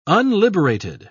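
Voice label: "unliberated", English, British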